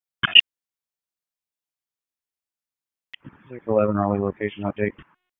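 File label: Police Southwest District Dispatch, p25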